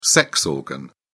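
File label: "sex organ", British English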